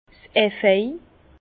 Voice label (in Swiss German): "efeu", Bas Rhin; lierre; vin